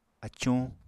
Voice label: "acuñ", apatani

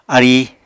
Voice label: "aríi", Apatani

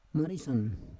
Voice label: "marisan", Roon

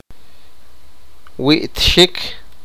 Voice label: sipakapense; kill

